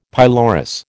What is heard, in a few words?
"pylorus", American